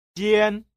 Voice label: "jian", tiếng trung; che bien